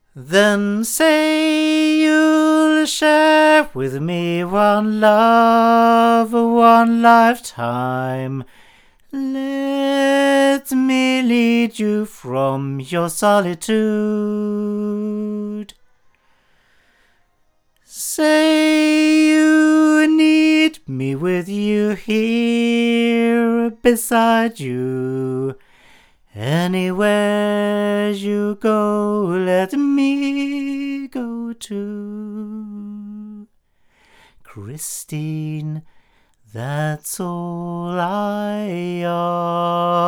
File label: MXL Genesis male